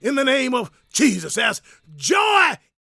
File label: PREACHIN, Gospel, PREACHER; ILIO; Vocal Planet